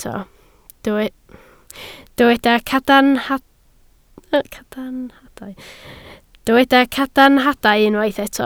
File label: "cadarnhadau", Welsh